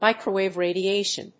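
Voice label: "microwave radiation", English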